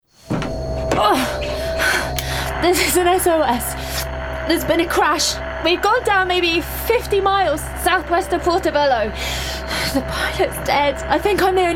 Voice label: Gaming Character Action Natural; Gaming Character Showreel